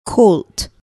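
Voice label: "colt", English word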